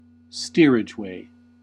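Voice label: "steerageway", US English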